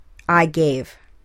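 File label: "I gave", English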